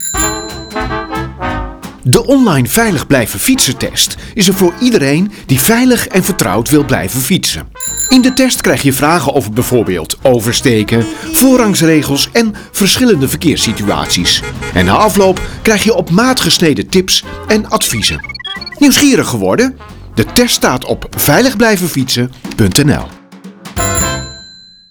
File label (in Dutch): Radiospot; Veilig blijven fietsen